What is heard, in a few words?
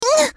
hurt vo